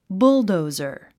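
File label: "bulldozer", English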